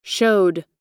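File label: "showed", American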